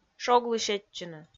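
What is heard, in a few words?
"шоглы сетчыны", Komi, female; kpv audio dict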